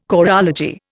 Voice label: "choreology", Indian